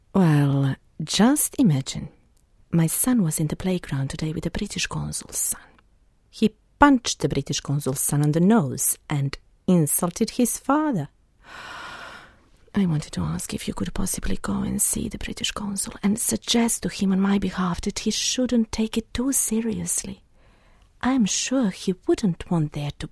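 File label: Serbian Croatian, English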